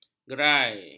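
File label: "gerai", Malay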